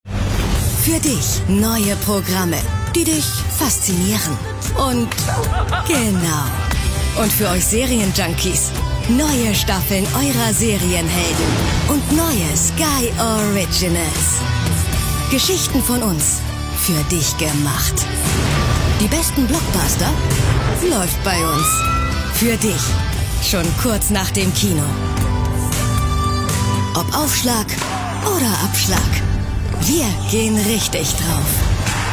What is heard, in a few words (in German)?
TV Spot; Sky